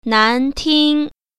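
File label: "nan2ting1", Mandarin Chinese Tone Pair Drills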